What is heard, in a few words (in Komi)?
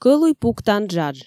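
"кӧлуй пуктан джадж", Komi, female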